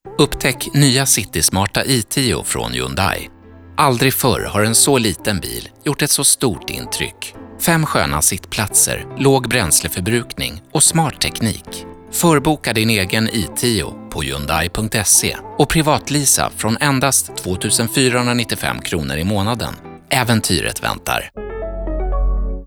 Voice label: Audio Ad Spot; Hyundai i10